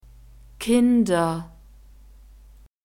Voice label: "kinder", german; alphabet

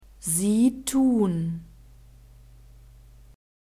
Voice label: "sie tun", German; verbs present tense irregular

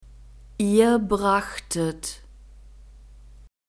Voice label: "ihr brachtet", German; verbs past tense irregular

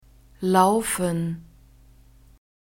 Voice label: "laufen", german; verbs present tense irregular

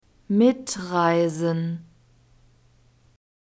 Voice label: "mitreisen", german

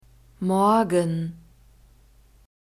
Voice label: "morgen", german; daytimes

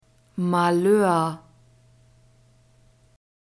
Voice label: "malheur", german; masculine

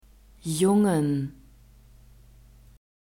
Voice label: "jungen", German

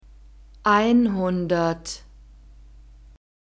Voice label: "einhundert", German

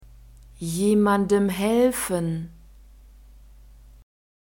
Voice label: "jemandem helfen", German

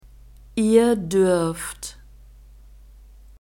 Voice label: "ihr duerft", German

